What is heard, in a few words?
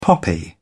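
"poppy", British English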